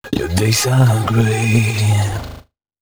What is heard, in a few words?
male d# 130, short hooks, Dance Voice